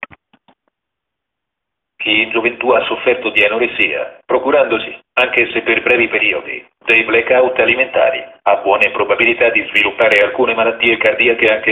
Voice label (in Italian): frase maschile